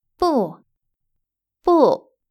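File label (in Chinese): "不", Chinese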